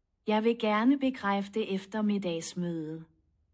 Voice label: danish